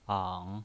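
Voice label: "ang", Mandarin Chinese, third tone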